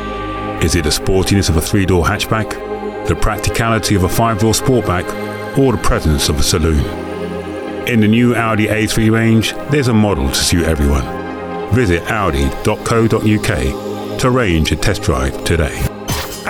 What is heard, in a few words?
Voiceover